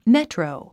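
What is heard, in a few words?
"metro", English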